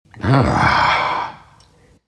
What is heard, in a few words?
happy; Sand King